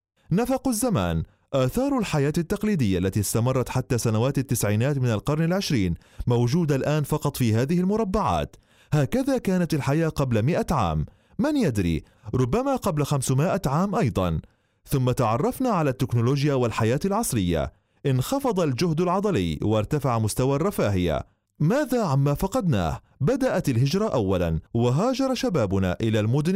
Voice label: arabic